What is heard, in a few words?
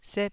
"sep4", taiwanese syllable